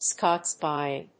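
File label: "scots pine", English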